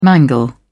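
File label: "mangle", British English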